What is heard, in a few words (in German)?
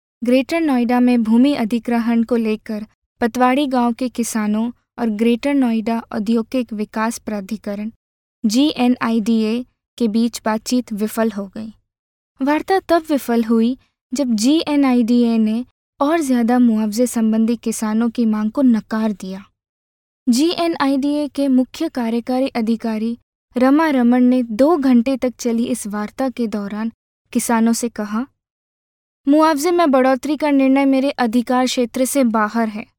Nachvertonung Film Sprecherin Hindi